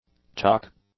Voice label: "chalk", English; nouns